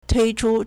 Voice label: "tui1chu1", Chinese

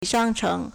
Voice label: "shang1cheng2", Chinese

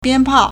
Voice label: "bian1pao4", Chinese

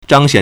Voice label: "zhang1xian3", Chinese

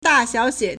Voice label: "da4 xiao3 xie3", Chinese

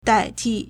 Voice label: "dai4ti4", Chinese

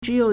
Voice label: "zhi3you3", Chinese

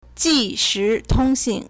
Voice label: "ji2 shi2 tong1 xin4", Chinese

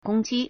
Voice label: "gong1 ji1", Chinese

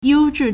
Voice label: "you1 zhi4", Chinese

